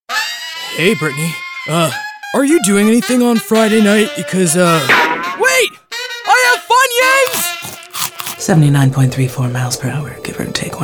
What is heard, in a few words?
women animation; Warm, sooth and silky. Seductive to professional.